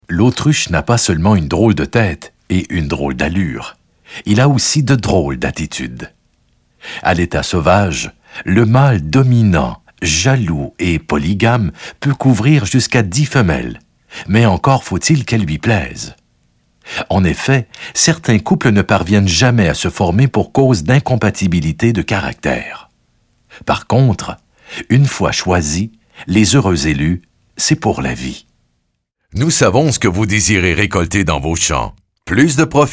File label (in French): Narration